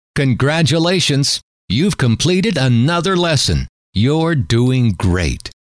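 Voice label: congratulations you've completed another, male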